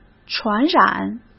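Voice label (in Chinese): "传染", Mandarin Chinese; hsk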